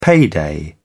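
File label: "payday", British English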